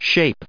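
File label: "shaped", US English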